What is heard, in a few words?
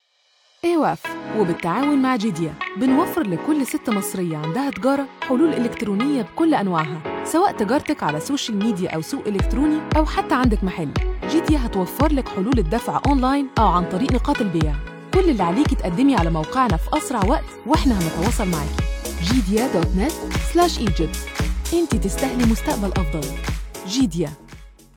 arabic corporate videos